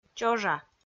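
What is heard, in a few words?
"чожа", Komi, female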